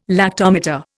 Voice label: "lactometer", Indian